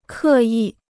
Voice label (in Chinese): "刻意", Chinese